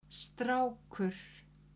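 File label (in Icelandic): "strákur", Icelandic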